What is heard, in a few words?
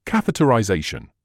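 "catheterisation", British English; ˈkæθ.ə.tə.raɪˈzeɪ.ʃən; kath-uh-tuh-righ-ZAY-shuhn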